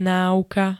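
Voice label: "náuka", Slovak; vedný odbor, vedná disciplína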